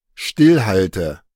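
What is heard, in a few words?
"stillhalte", German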